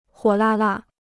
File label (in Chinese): "火辣辣", Chinese